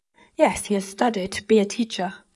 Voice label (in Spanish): Voice Acting es